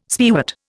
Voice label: "spearwort", Indian